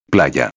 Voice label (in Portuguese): "playa", espanhol